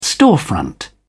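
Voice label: "storefront", English, British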